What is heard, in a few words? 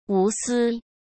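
"无私", Mandarin Chinese; hsk; chinese-flashcards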